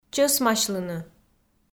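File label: "чӧсмасьлыны", Komi, female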